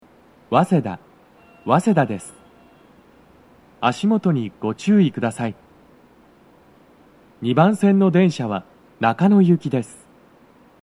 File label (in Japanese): 到着放送, 中野行, 男声; 2番線 中野・三鷹方面; 早稲田